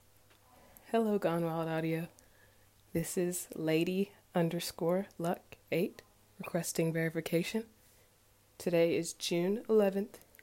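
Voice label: Verification, Female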